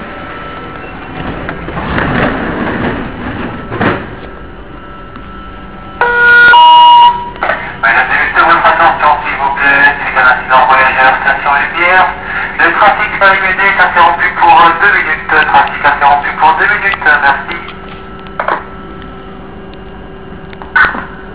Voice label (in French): message, metro